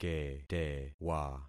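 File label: "ketewa", concatenated syllables; Affixing experiment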